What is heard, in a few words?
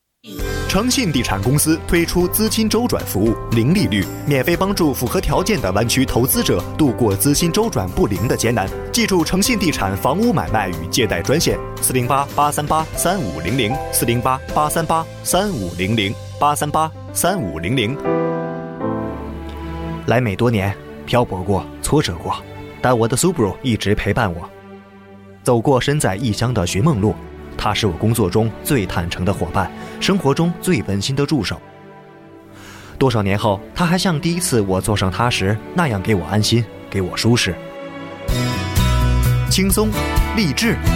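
mandarin generic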